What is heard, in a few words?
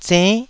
"zenn9", taiwanese syllables